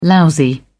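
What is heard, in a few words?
"lousy", British English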